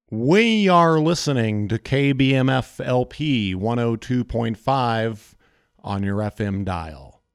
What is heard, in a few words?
Nasal Announcer; STATION IDs